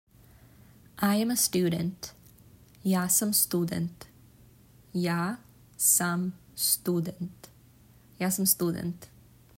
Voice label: I am a student, serbian